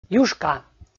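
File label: "юшка", Komi, male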